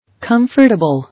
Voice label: "Comfortable", English

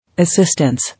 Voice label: "Assistance", English

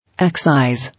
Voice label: "Excise", English

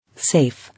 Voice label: "Safe", English

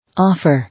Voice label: "Offer", English

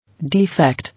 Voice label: "Defect", English

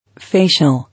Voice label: "Facial", English

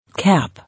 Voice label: "Cap", English

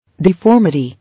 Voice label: "Deformity", English

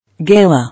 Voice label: "Gala", English

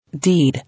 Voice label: "Deed", English

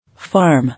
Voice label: "Farm", English

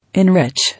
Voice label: "Enrich", English

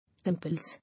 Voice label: "Impulse", English

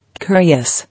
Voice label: "Curious" English

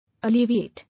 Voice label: "Alleviate", English

